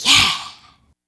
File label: Yeah, Swingin Chiks Spoken